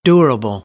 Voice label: "durable", American; AmericanBritish

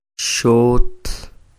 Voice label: "xot", Quiché; teja; shingle; INALI; UTexas; CHQETA

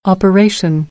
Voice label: "operation", American English; ɑː - ɒ

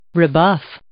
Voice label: "rebuff", English

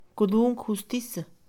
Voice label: "tribunal", Mixe, Mujer; INALI

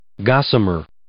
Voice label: "gossamer", English

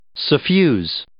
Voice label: "suffuse", English

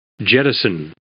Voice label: "jettison", English

